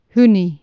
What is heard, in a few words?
"hunig", Anglo Saxon